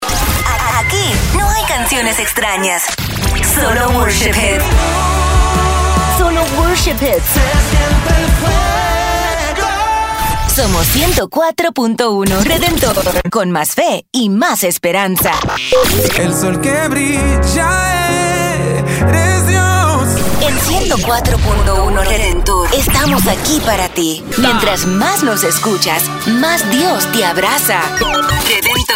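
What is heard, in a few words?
Spanish Christian Imaging, Female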